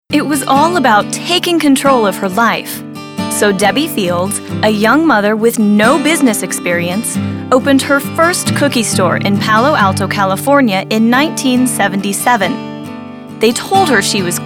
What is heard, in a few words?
narration